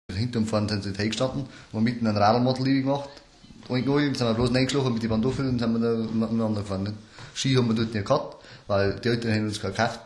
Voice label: Nordbairisch Amberg; Oberdeutsch

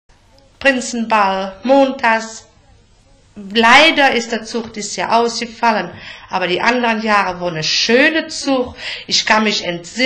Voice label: Koelsch, Ripuarisch; Mitteldeutsch

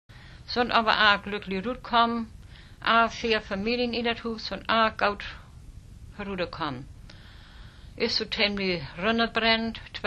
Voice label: Nordfriesisch

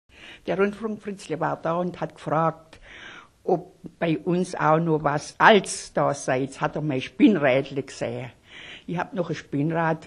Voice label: Suedfraenkisch; Oberdeutsch

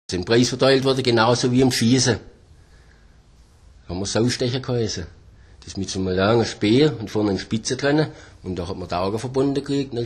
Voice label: Schwaebisch; Nordallemannisch; Oberdeutsch

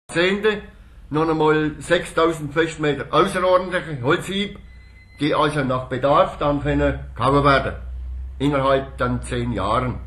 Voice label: Niederallemannisch, Oberdeutsch

